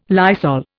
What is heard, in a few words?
"Lysol", Indian